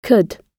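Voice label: "could", British English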